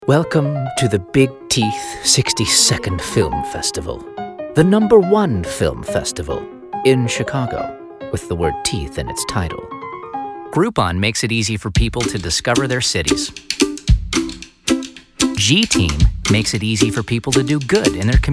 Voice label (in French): Homme 20 30 ans Medium Haut; VOIXOFF ETRANGERES